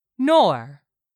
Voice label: "nor", English; 3000 words

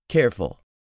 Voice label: "CAREFUL", english; 3000 words

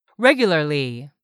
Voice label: "REGULARLY", English; 3000 words

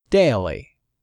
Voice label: "DAILY", english; 3000 words

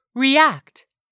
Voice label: "react", English; 3000 words

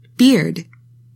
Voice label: "BEARD", english; 3000 words

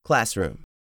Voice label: "classroom", english; 3000 words

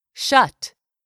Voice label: "shut", English; 3000 words

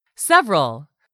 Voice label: "SEVERAL", english; 3000 words

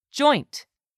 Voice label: "JOINT", english; 3000 words

